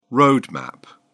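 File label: "road map", British English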